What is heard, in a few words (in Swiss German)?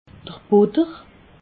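"butter", Alsatian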